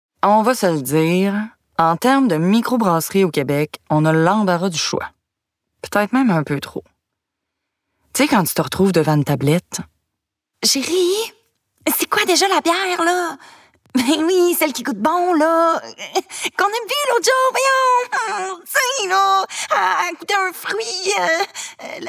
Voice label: personnage humour annonceur fr qc joual; noctem artisan brasseur

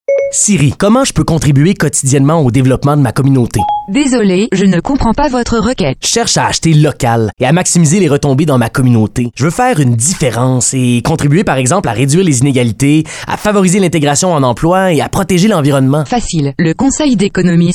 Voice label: annonceur personnage serieux francais quebecois naturel douce rassurant; cesim; affirmee

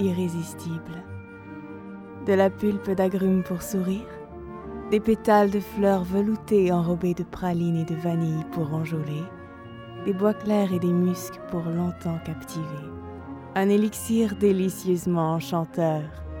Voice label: parfum français normatif narration annonceur sobre posée; nina de nina ricci; sensuelle

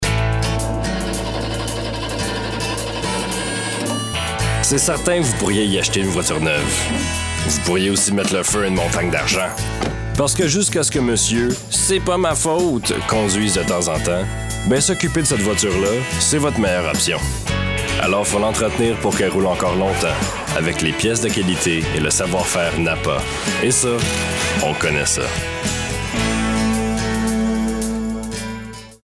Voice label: fr qc joual annonceur amical avec sourire explicatif narritif promo; mecano; conversation

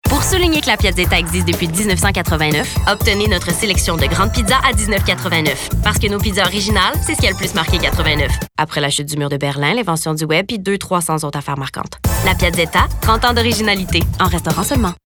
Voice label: pub annonceur personnage humour fr qc naturel promo affirmee; piazzetta

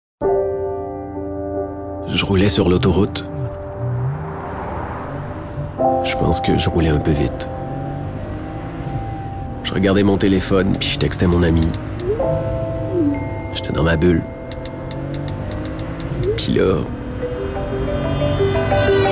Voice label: francais quebecois naturel personnage serieux doux; texter au volant; rassurant

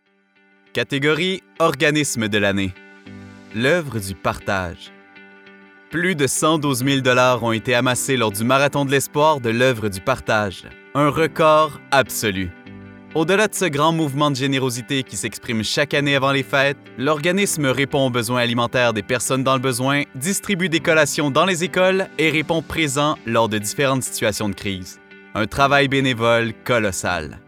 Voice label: annonceur de gala fr qc naturel informatif souriant elegant adulte medium

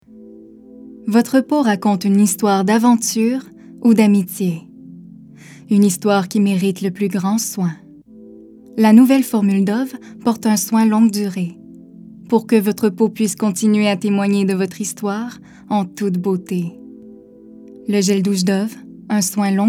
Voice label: annonceur douce rassurante jeune adulte; dove

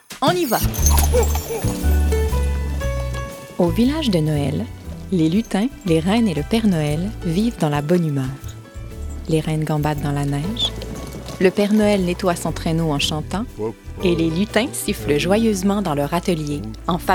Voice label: narration ton conte de noel fr normatif amical adulte medium

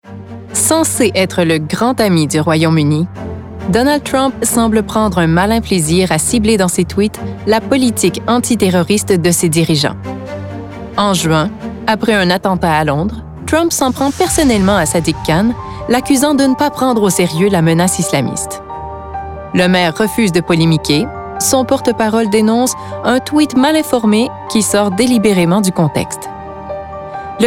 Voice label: narration

